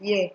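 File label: "here", Nigerian Pidgin, female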